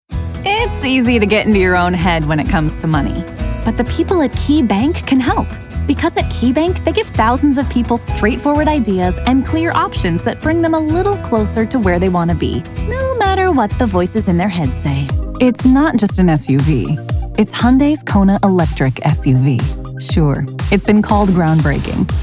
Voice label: vo commercial